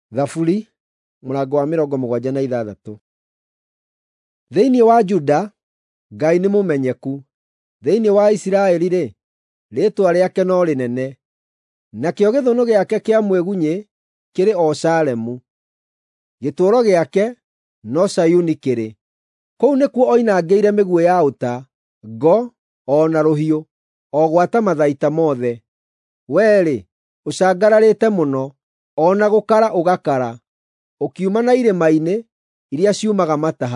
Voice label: psalms, gikuyu drama

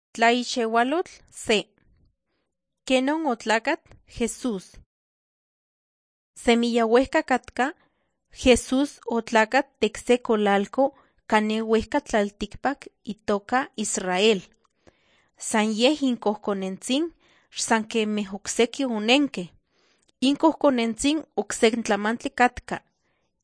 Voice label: nahuatl guerrero tlapa; the bible league nt drama

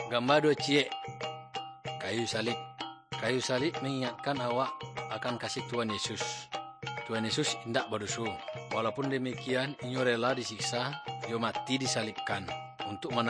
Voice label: good news minangkabau muko muko; kaba ilo

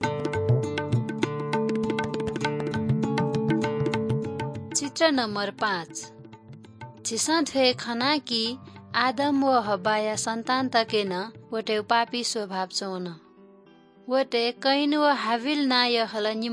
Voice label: good news newari balami

